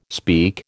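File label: "speak", American English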